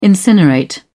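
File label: "incinerate", British English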